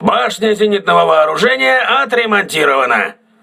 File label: voice message aa turret repaired, russian